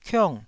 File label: "kiong4", taiwanese syllables